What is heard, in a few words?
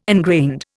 "ingrained", Indian